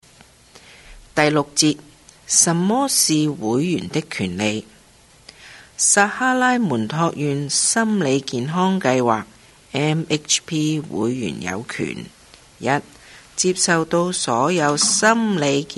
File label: Chinese, Cantonese; Member Handbook